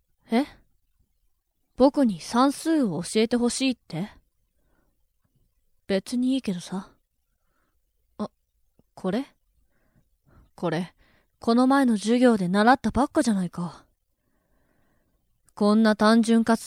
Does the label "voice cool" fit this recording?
Yes